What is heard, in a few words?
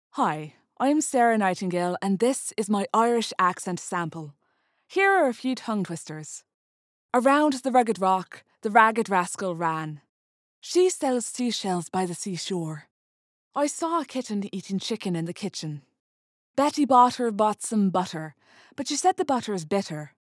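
Accent Sample Irish, female voice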